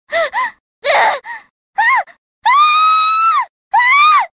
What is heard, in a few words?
pain; kasumi